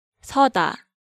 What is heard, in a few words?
"seoda", Korean